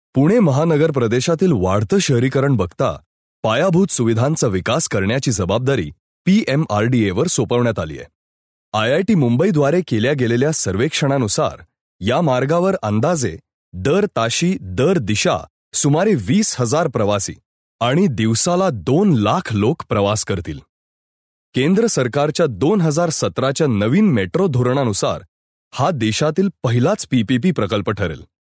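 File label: Marathi, male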